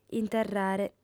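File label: "interrare", Sardinian, female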